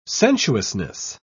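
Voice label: "sensuousness", British English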